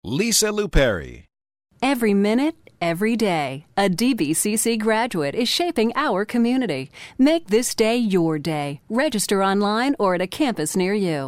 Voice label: INSPIRING